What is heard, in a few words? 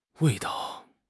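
"wei4 dao5", Mandarin Chinese; HSK4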